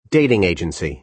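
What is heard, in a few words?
"dating agency", American English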